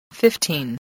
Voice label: "fifteen", spelling

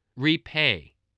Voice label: "repay", English

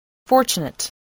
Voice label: "fortunate", English voice, spelling

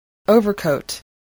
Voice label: "overcoat", English voice

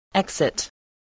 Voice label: "exit", voice

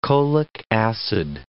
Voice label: "cholic acid", English

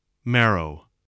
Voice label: "marrow", spelling voice

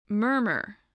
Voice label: "murmur", English

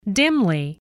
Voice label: "dimly", english spelling

